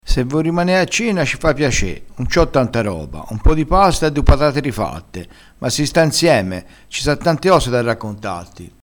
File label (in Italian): "rifatto", crespinese, vocabolario dialettale